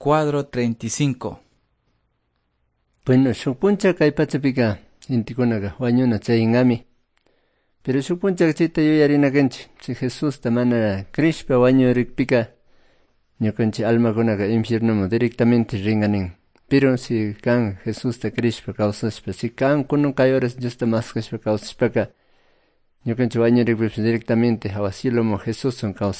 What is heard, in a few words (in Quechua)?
good news quichua cayambe